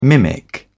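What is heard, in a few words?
"mimic", British English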